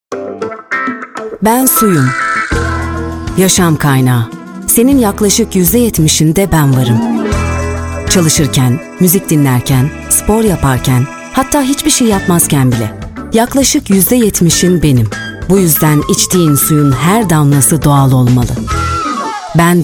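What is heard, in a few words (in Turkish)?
reklam, Turkish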